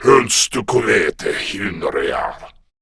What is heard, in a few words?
Patrol, Male; Warframe; Grineer Male B; Battle Barks